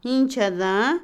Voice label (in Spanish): crudo, Otomí, mujer; Comida; INALI